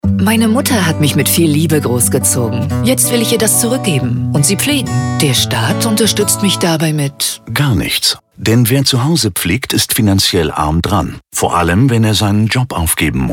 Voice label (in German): Radiospot